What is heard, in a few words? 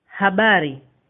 how are you, swahili; Habari